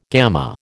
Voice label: "gamma", American

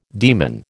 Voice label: "daemon", American

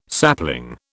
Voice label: "sapling", British

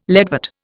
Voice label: "leadwort", Indian

